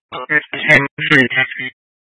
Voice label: Fire Dispatch, scanner

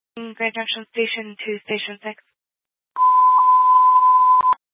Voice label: Fire Department Dispatch, scanner; Grand Junction

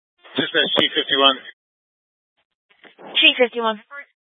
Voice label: Fire Department Dispatch; Grand Junction

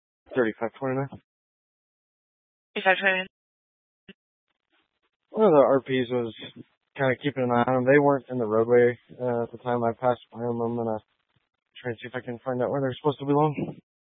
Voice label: Police Dispatch, scanner; Police 1 Dispatch

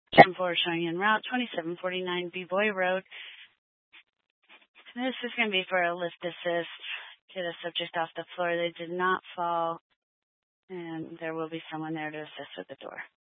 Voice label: Fire Department Dispatch, scanner; Grand Junction

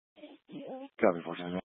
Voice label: Mesa County Sheriff Dispatch

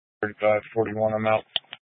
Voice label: Police Department Dispatch, scanner; Grand Junction

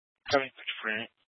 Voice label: Police Dispatch

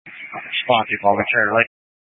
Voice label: Grand Junction Fire Department Dispatch

